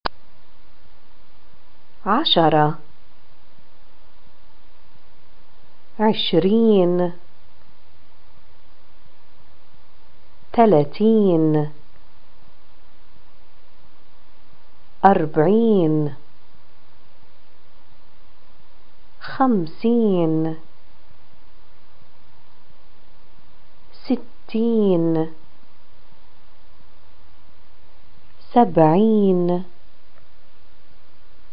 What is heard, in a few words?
numbers 10 100, arabic, pl